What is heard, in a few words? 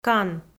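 "кан" Komi, female; kpv audio dict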